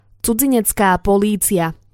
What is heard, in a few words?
"cudzinecka policia", slovencina